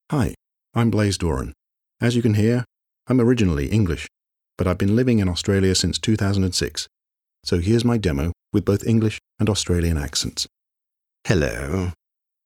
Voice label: Commercial voiceover; demo